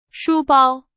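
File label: Chinese, vocabulary; hsk1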